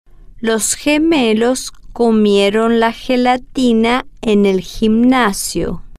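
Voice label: gemolos comieron la gelatina en, Spanish, female, slow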